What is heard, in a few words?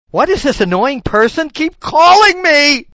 Annoying person